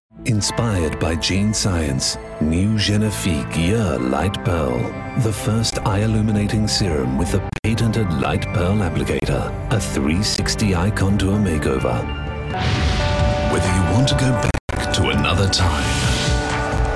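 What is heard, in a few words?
English male; lektoring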